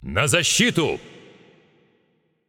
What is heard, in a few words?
Announcer Russian Match Role Defend; paladins